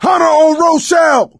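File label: pounced, voice, male; Left 4 Dead 2; coach; hunter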